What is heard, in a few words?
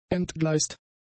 "entgleist", German; adjectives